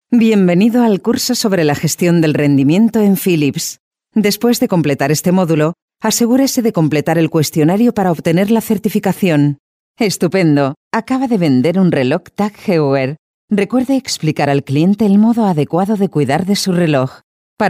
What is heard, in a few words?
eLearning/Training Female Spanish (European)